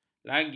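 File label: "lagi", Malay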